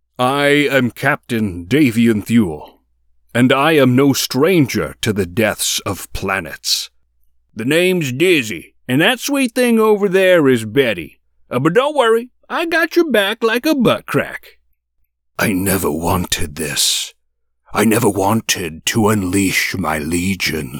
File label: VO animation